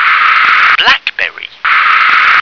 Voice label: "blackberry", English

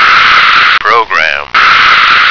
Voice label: "program", American

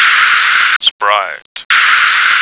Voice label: "sprite", American

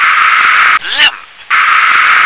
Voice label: "lamp", English

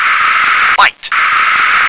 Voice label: "byte", English

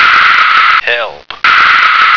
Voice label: "help", American

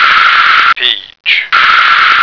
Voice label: "peach", American

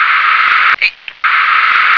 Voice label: "ape", English

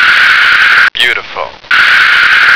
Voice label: "beautiful", American

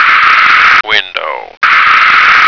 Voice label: "window", American

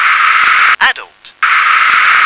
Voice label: "adult", English

